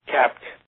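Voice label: "capped" English, American accent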